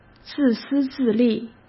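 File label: "自私自利", Mandarin Chinese; hsk; chinese flashcards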